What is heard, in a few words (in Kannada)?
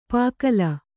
"ಪುಕ್ಕಲಿ", Kannada